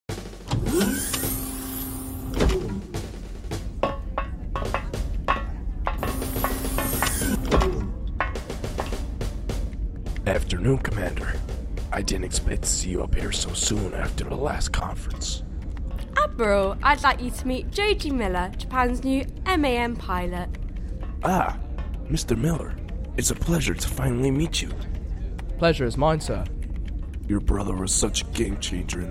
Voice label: Radio Drama